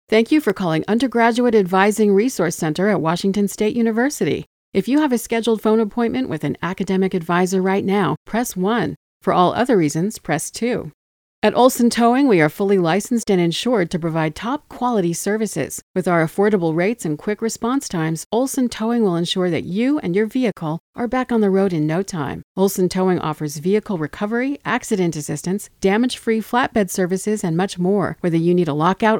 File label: IVR; AdvantageIVR